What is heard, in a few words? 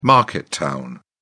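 "market town", English, British English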